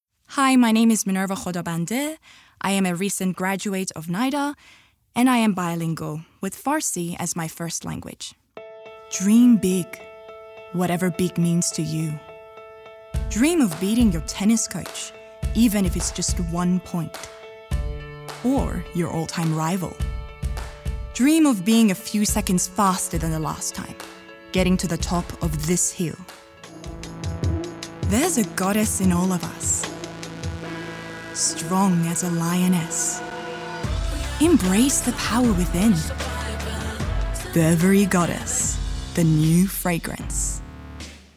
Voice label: voice reel, female, Australia; female voice over artists australia

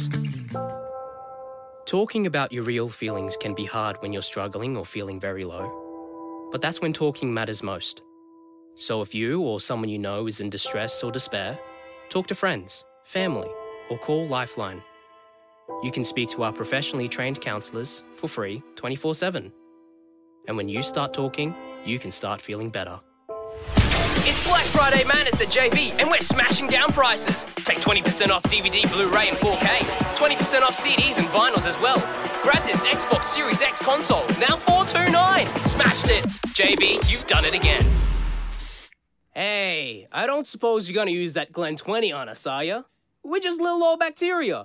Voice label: voice over, male, Australia

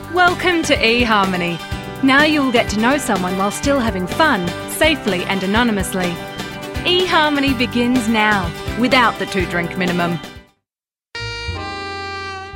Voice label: female voice over artists australia

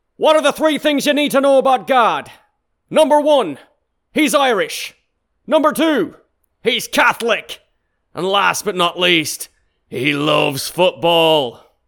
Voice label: Irish Belfast

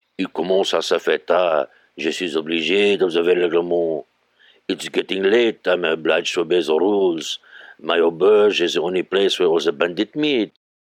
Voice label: VO French

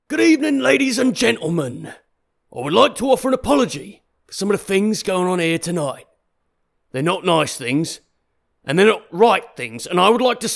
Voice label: British Essex

